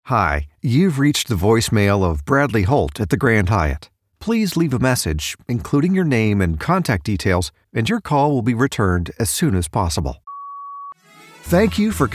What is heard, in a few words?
voiceover telephony